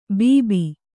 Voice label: "ಬಾಬು", Kannada

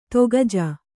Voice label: "ತಾಕೀಜು", kannada

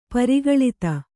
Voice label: "ಪರಿಕಲಿತ", Kannada

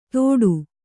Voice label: "ತೊಡು", Kannada

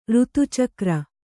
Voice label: "ಋತುಚಕ್ರ", Kannada; řtucakra; noun

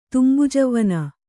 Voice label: "ತುಂಬುಜವ್ವನೆ", kannada

